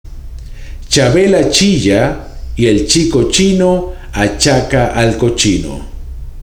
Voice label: CHABELLA CHILLA, spanish tongue twisters; 46 Spanish Tongue Twisters To Perfect Your Pronunciation